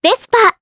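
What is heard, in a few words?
Vox Japanese; Kirze; Vespa; kingsraid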